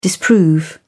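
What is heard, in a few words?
"disprove", British English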